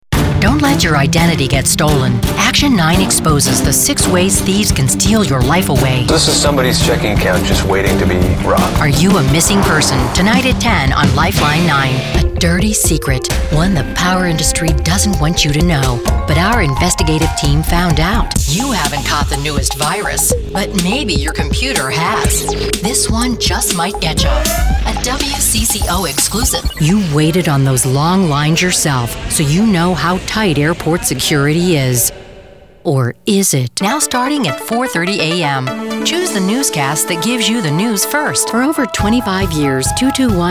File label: women tv affiliate